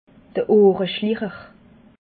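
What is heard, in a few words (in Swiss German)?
"ohreschlicher", Alsatian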